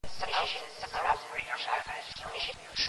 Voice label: chatter